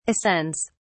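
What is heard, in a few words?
"ascends", British English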